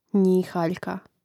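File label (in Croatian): "njihaljka", Hrvatski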